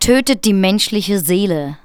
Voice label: german, SPOKEN; Techno Trance Essentials